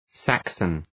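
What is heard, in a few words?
"Saxon" English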